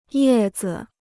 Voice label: "叶子", Chinese

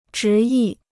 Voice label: "执意", Chinese

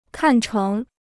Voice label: "看成", Chinese

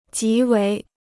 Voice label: "极为", Chinese

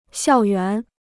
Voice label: "校园", Chinese

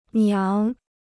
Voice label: "娘", Chinese

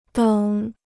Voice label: "等", Chinese

